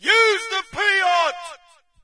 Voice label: brit use piat; player; Source Engine; dod